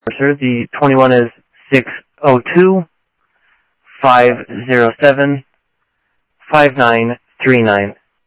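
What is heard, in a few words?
Sheriff Lake Patrol, scanner audio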